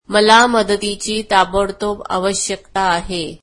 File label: marathi I need help immediately